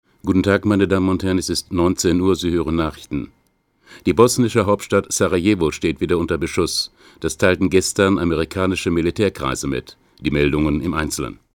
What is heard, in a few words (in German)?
Nachrichten